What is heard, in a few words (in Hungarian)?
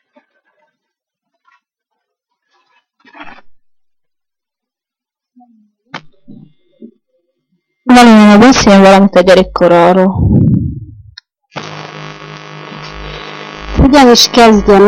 nyelvjaras gyujtesek